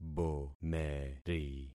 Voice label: "bomeri", concat syllables; Affixing experiment